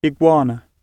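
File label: "iguana", British English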